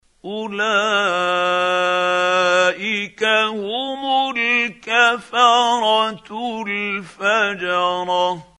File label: quran, Hussary, tafseer; Abasa